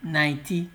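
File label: "nightie", Nigerian Pidgin, male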